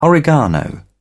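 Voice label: "oregano", British English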